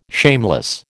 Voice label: "shameless", American